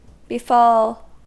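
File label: "befall", US English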